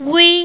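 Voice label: "wei", Chinese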